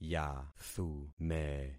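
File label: "yathume", concatenated syllables; Affixing experiment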